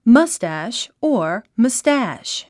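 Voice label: "moustache", English; mʌ’stæʃ マスタァシュ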